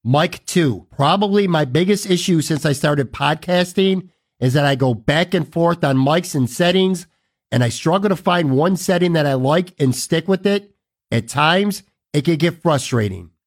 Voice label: MIC MUD SCOOP REVERB MID BOOST